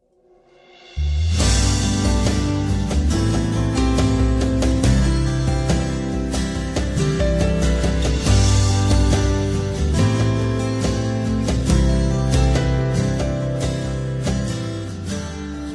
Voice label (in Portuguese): Aniversario Religioso Feminino, telemensagem